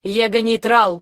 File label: girl